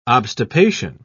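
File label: "obstipation", British English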